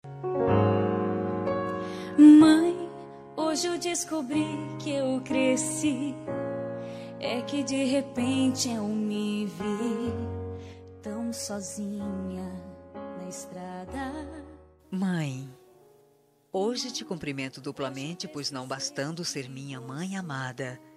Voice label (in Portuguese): mae como pai fem, voz feminina; Dia dos Pais; para mae que e pai